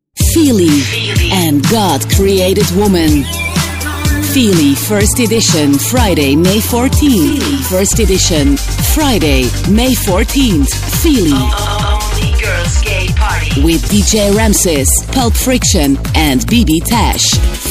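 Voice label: flemish english female commercial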